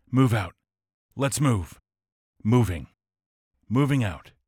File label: Male